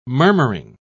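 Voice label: "murmuring", English, British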